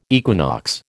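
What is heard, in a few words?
"equinox", American English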